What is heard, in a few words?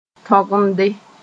"tõgemde", Mooré